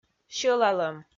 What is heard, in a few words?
"сьӧлалӧм", Komi